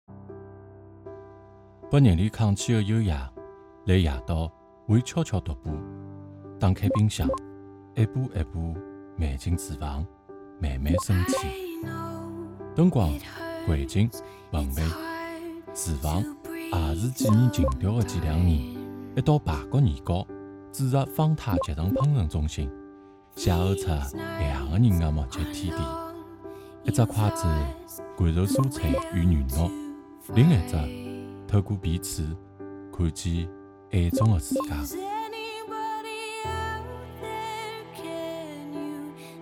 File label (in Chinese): 上海方言 男 上海话; 方言配音